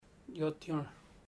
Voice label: I make, chamacoco; Bahia Negra

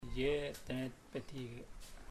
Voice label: I didnt come, chamacoco; Puerto Diana

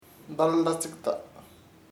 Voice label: backwards, chamacoco; al revEs

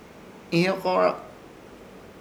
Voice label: "Ihyokorãk", Ishir Chamacoco; trabajo

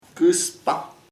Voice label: very cold muy frIo, chamacoco

